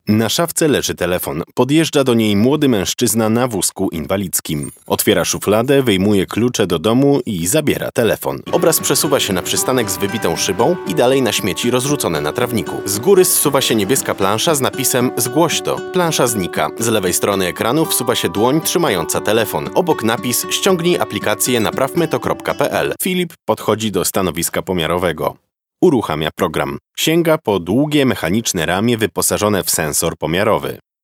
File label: Polish, Male